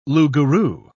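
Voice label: "loup-garou", British English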